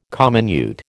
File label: "comminute", American